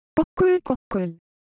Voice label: Korean Texture, Ideo Phones